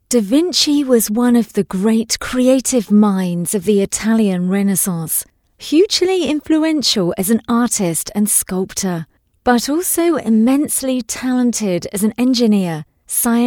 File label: Audiobook